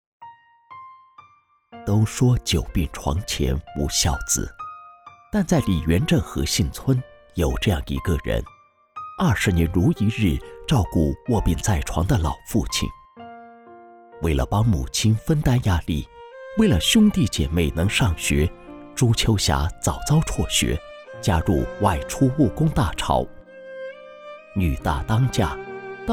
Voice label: 男,年会,人物介绍

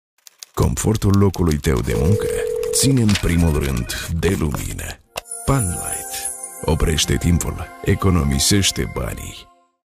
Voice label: 外籍俄语男 办公室介绍

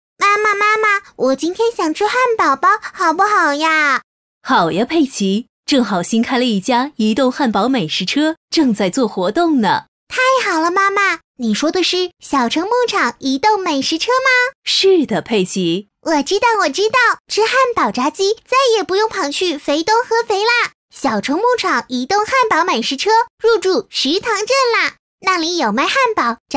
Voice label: 女, 女童; 佩奇和妈妈; 土壤精灵移动汉堡美食车

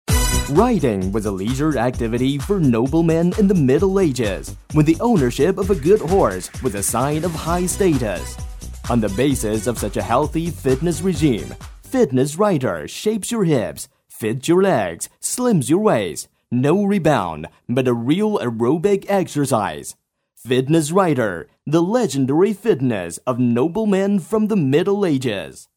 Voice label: 英男 电视促销广告 激情型

